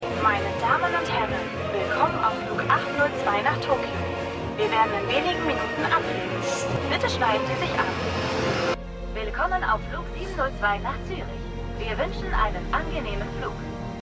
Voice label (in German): Flugbegleiterin; Tetris